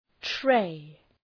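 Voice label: "tray", English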